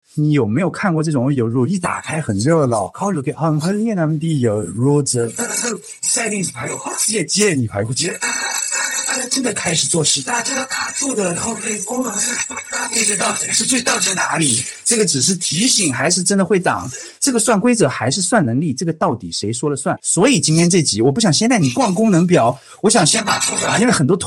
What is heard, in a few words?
rain podcast